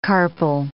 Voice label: "carpel", English